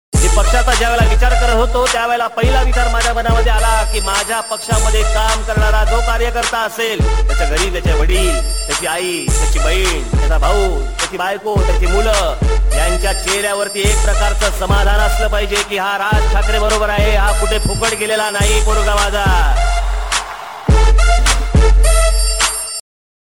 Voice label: speech marathi; raj thakare